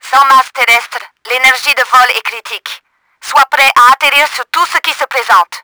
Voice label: WARNING, french; Battle Engine Aquila; XBOX